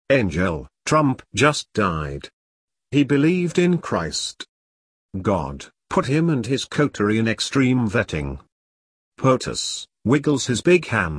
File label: English Male Read Aloud